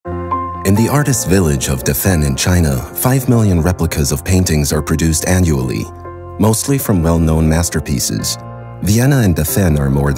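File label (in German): US English, Kommentar Freundlich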